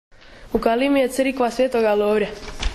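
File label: "crikva", Croatian dialect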